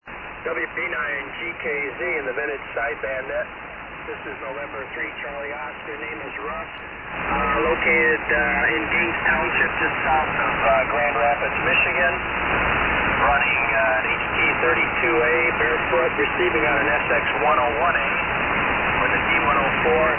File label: Halli HT-32A SX-101A & D-104 Mic; Byron Center, MI.